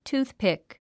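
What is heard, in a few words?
"toothpick", English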